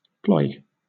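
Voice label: "ploy", British English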